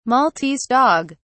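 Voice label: "maltese dog", English, uk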